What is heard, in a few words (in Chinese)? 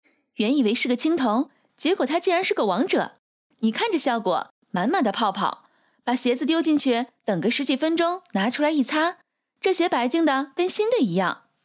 短视频配音